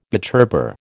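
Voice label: "butterbur", American English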